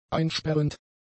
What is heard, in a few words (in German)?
"einsperrend", german; adjectives